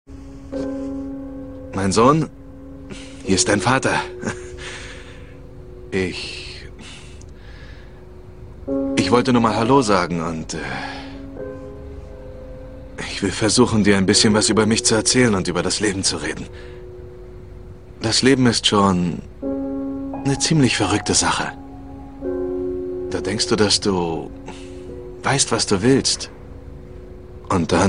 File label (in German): Emotionaler Monolog